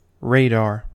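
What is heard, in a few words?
"radar", US English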